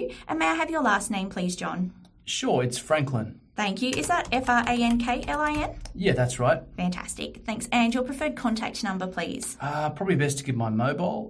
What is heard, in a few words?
building construction trades business phone answering service sample call